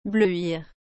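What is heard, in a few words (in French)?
"bleuir", French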